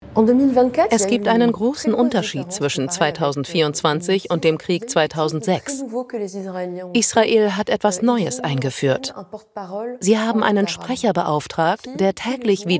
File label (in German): Doku VO, Voice Over, German; Voice Over | ARTE | Doku